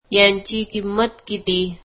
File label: marathi how much is this